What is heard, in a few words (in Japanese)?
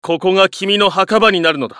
ウィザード男･アポカリプス